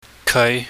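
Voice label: "keui", Cantonese, low rising tone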